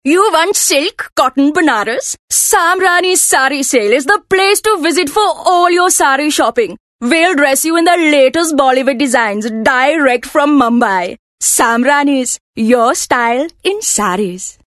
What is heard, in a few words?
Hardsell Indian, voice artist